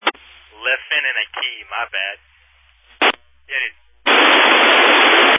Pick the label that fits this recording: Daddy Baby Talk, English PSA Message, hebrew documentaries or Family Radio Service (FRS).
Family Radio Service (FRS)